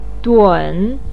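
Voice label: "dun" third tone, Mandarin Chinese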